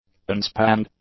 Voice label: "unspanned", english; adjectives